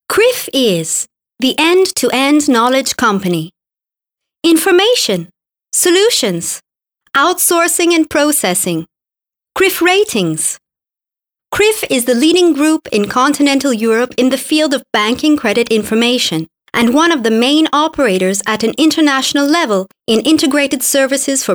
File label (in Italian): inglese donna